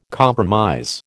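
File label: "compromise", American English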